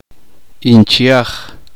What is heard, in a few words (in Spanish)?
uspanteko tia la hermana mayor de su padre